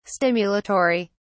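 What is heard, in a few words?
"stimulatory", British English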